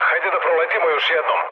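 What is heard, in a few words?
Serbian bomb failure mood low, speech; War Thunder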